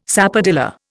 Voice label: "sapodilla", Indian